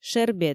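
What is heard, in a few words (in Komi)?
"шербет", Komi, female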